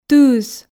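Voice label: "тыыс", Komi, female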